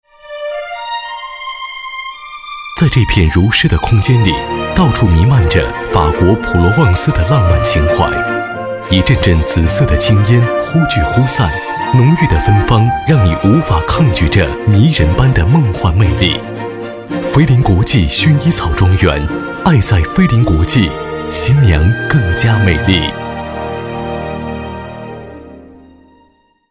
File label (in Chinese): 男6 广告; 菲林国际